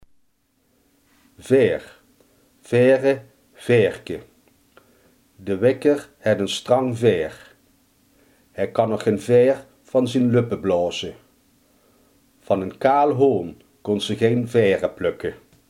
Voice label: "veer", limburgse

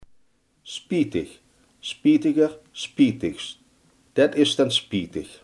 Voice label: "spijtig", Limburgs

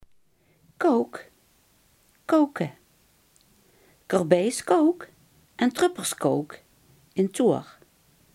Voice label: "kolk", Limburgs

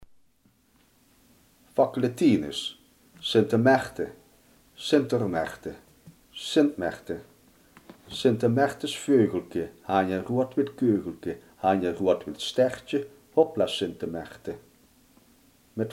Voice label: "sint maarten", Limburgs

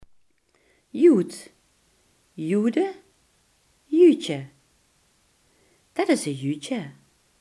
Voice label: "jood", Limburgs

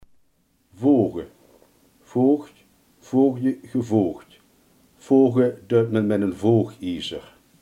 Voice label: "voegen", limburgse

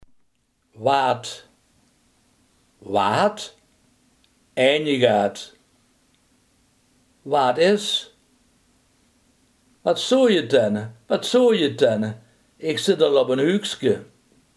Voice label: "wat", limburgse

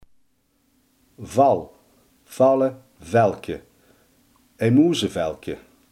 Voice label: "val", limburgse

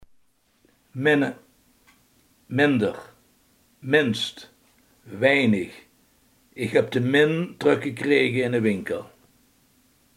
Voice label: "weinig", limburgse

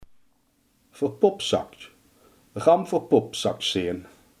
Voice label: "verbluft", limburgse

